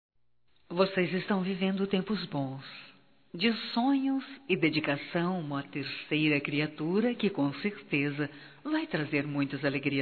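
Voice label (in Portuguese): Gravidez Casal female; Telemensagens 2017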